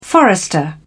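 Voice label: "forester", British English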